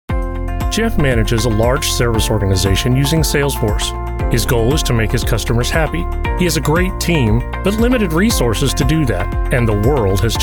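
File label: Narration, American male voiceover; Narration Demo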